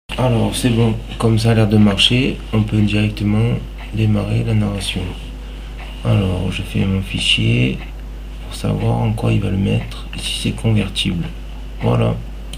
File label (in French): test naration en video